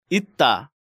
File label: "Itda", Korean; Hangeul sounds